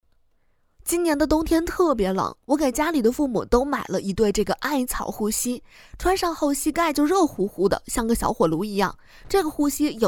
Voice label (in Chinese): 卖货